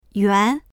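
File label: "yuan2", Mandarin Chinese; 圆; 丸い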